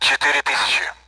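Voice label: Speech Sound Russian Common AWACS Numbers; DCS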